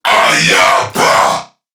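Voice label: Attack Crewmen, English; Dialog; Barks; Battle; Warframe; CorpusCrewmen; CrewmanA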